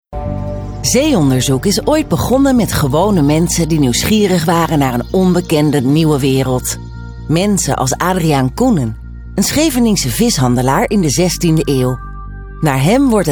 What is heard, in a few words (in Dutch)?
NL docu